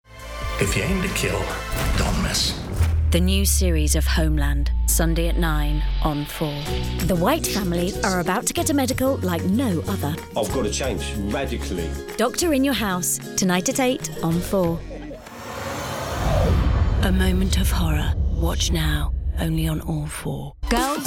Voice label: VO reel Idents; Promo Showreel; C4 Idents

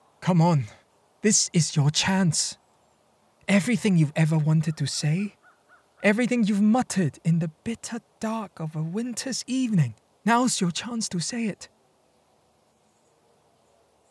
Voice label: Chinese Narrative; (Chinese) Sugar Coated Bullets of the Bourgeoisie

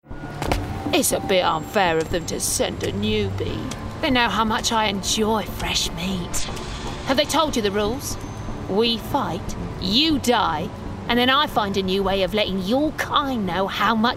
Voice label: Gaming Villain London

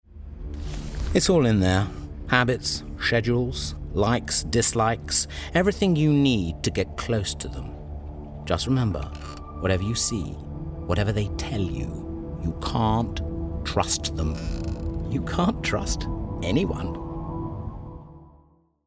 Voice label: Gaming Spy Handler

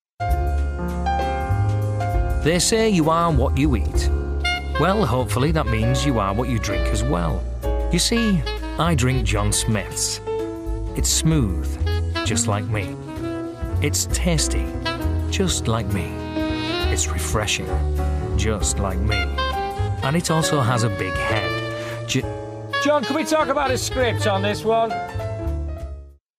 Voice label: COMMERCIAL Smooth Comedy Soft Sell; John Smiths

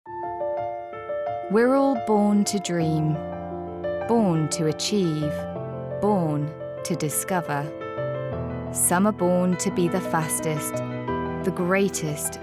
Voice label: Commercial; Save The Children

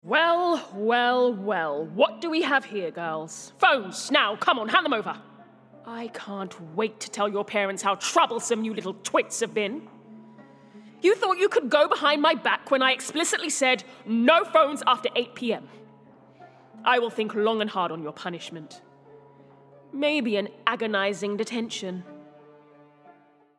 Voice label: RP Teacher

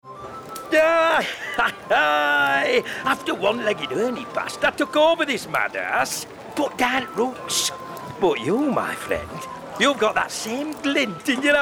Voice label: Video Game Innkeeper

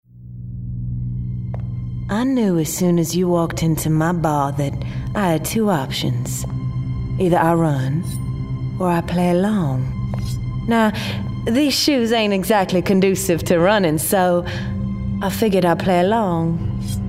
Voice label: Character Southern US

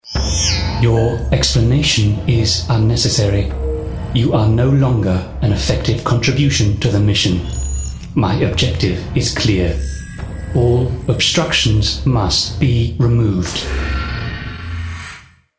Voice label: Gaming Character Computer RP

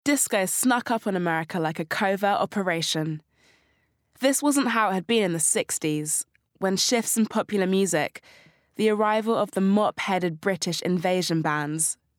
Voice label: Bookread

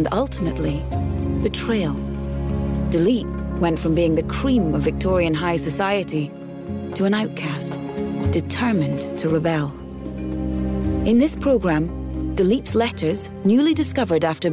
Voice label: Doc British Empire

